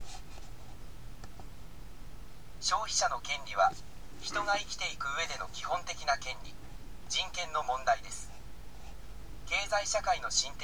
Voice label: elearning; japan; business and human rights